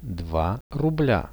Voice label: "2 rublia", Russian; Два рубля.

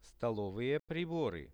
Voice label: "stolovye pribory", Russian; posuda

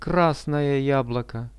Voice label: "krasnoje jabloko", Russian; красное яблоко

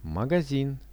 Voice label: "magazin", Russian; магазин

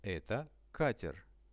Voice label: eto kater, Russian; Это катер.; transport; RUSSIAN VISUAL DICTIONARY

